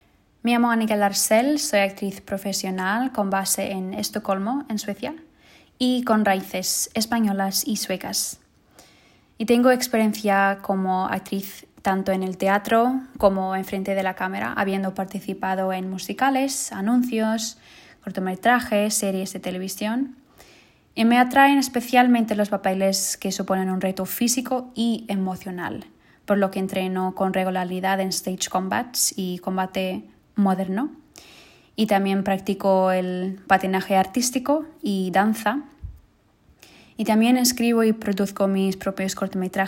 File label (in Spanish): Presentacion Espanol